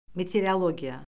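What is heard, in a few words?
"meteorologiya", Azerbaijani; Orfoqrafiya Lüğəti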